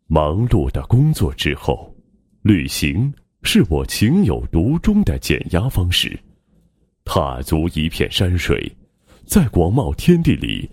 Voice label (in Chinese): 男 旅游宣传 宣传视频; 梧桐树